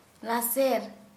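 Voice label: Santali female sharpening tools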